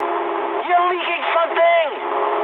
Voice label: eng tank damaged mood high, speech eng; War Thunder